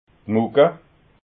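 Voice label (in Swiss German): "mucka", Alsatian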